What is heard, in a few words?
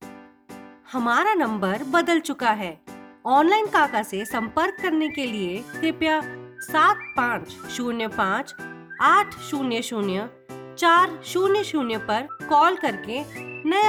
HINDI IVR